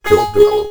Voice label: comm voice